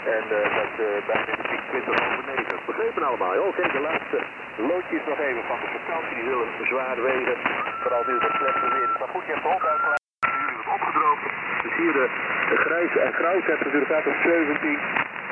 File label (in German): LSB Amateurfunk; 3604khz; HF1000; R9500